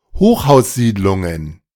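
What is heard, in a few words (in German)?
"Hochhaussiedlungen", German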